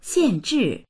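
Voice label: "xiànzhì" Mandarin Chinese; 限制; 制限（する）、規制する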